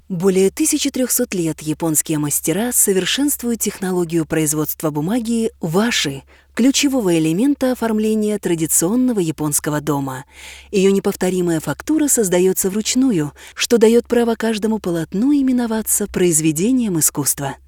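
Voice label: dubbing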